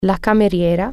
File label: waitress, italian